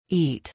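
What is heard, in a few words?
"eat", English pronunciation; long i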